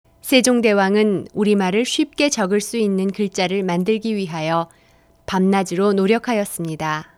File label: Korean